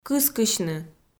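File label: "кыскысьны", Komi, female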